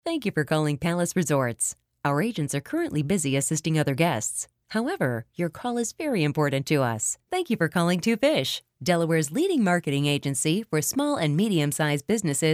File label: On Hold